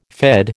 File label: "Fed", American